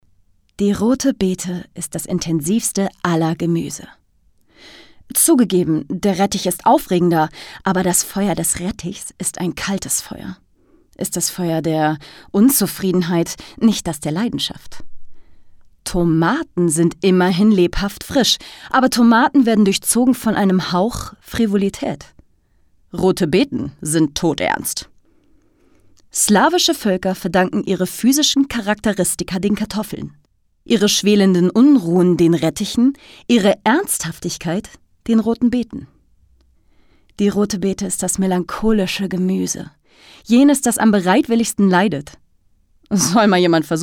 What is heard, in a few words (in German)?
Hoerbuch, German; Audio Drama (Hörspiel); Die rote Beete